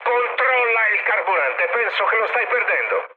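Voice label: Italian tank damaged mood high, speech; War Thunder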